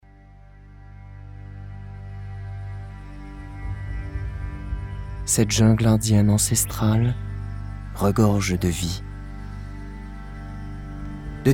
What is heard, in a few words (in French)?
Narration